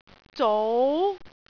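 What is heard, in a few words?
"zou2", Cantonese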